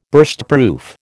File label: "Burstproof", American